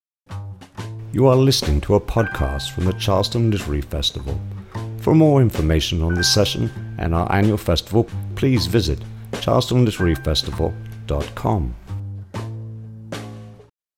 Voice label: Podcasting, Yearly Festival Promotion, British Male Voice; Charleston Literary Festival